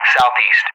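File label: "SE", English; DCS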